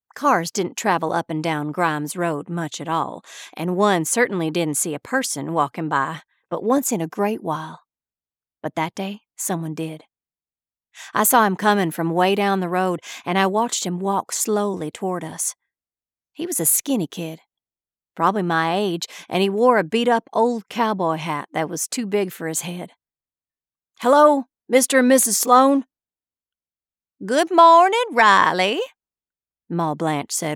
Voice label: Fiction Southern Accents